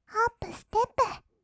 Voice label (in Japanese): ほっぷすてっぷ, kawaii voice; ASMR; 1200 kawaii voice!!; 音ゲー